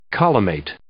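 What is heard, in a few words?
"collimate", English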